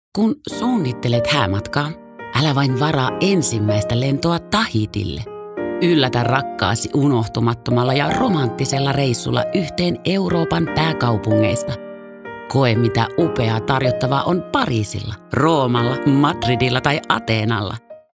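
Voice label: Finnish, female, commercial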